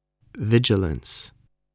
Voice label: "vigilance", English